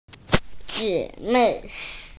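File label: "sisters", Mandarin; jie3mei4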